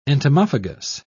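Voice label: "entomophagous", English, British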